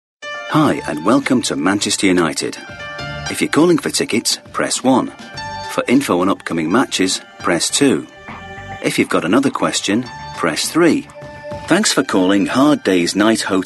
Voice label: telephone

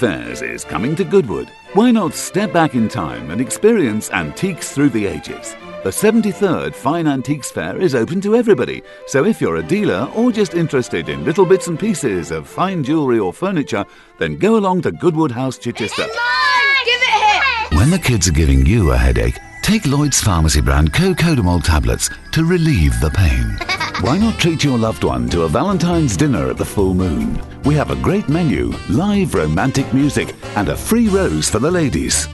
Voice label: commercial

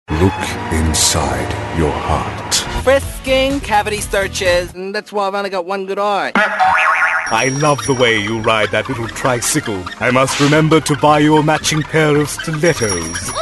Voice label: character voice; Voiceover Actor & Artist

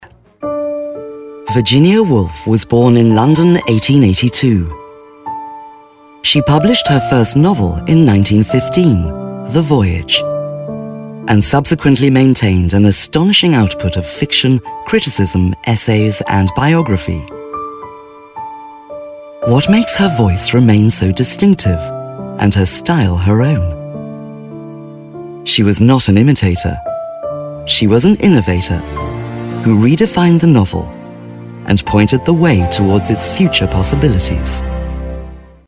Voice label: documentary

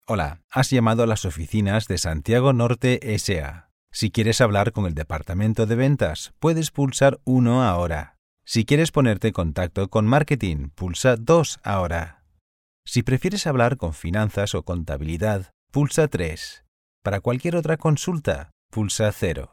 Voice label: spanish telephone

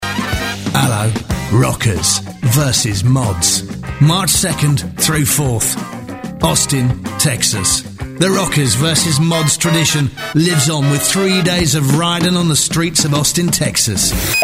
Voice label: cockney

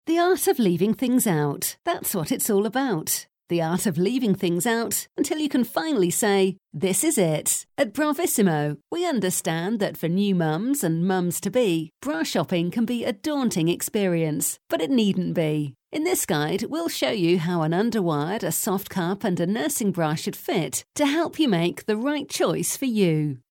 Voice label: corporate

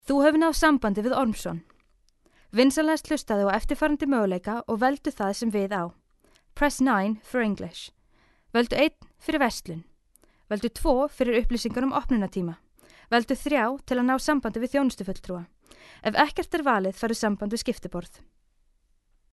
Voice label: Telephone

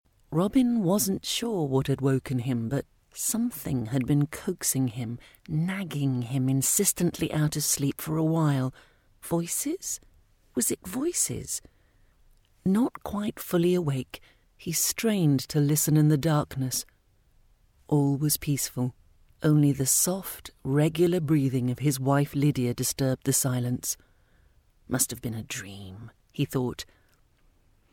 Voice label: audiobook

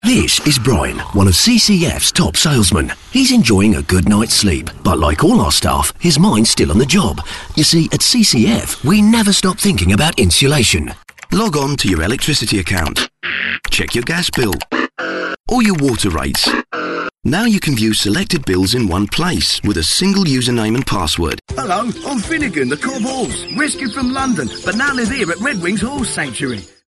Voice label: Cockney

